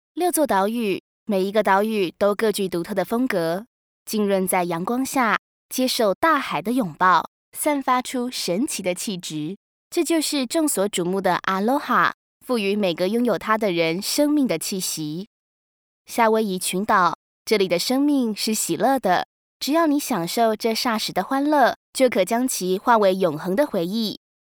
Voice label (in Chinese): 國語 女; 夏威夷